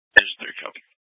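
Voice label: Fire Dispatch, scanner audio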